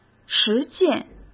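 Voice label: "实践", Mandarin Chinese; chinese-flashcards; hsk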